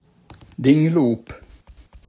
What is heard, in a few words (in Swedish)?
"dinglop", daladialekt; gagnsmål